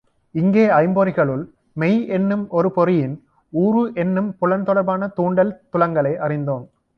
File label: tamil audio; democratizing tamil